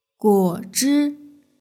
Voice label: "guozhi", Chinese; hsk4